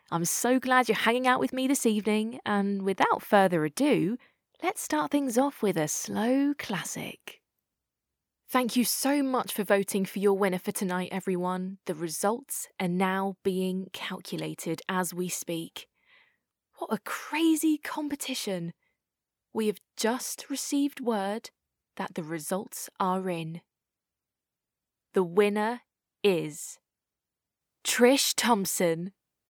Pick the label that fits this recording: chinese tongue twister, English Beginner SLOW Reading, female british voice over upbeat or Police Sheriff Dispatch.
female british voice over upbeat